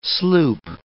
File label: "sloop", words